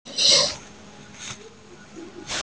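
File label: "ti1", Mandarin Chinese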